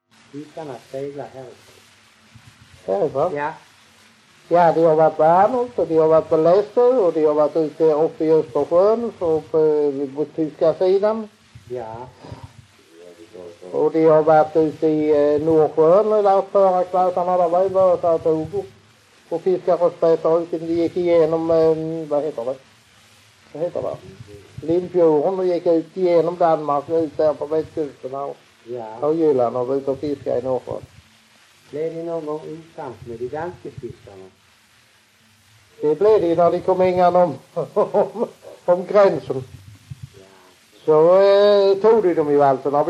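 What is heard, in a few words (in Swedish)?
Raus Skåne, Svenska sagor dialekter; Folkminnen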